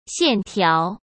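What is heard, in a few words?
"线条", Mandarin Chinese; hsk; chinese-flashcards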